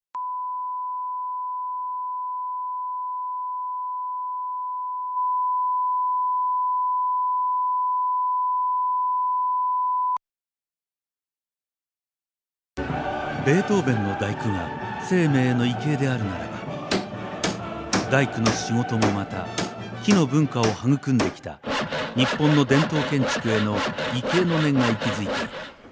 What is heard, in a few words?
radio cm image